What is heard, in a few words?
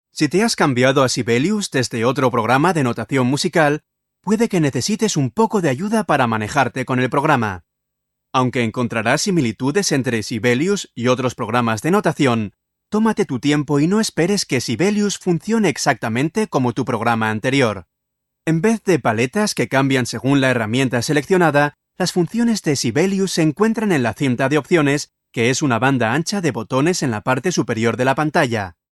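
Spanish e-learning, male; spansk-europa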